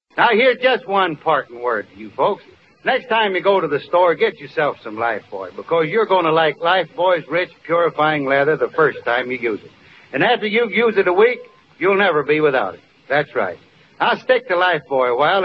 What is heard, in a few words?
Old Radio Ads; LifeBuoy